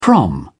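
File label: "prom", British English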